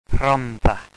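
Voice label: "rhondda", Welsh; Glamorgan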